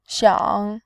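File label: "xiang", Mandarin Chinese, tone 3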